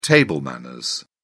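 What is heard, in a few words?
"table manners", British English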